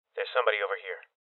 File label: Voices Enemy Spotted Male Young